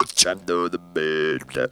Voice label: "to the beat", Funky Vocals; USB Soundscan vol.18; AKAI